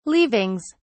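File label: "leavings", British English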